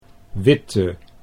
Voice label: "Witte", Dutch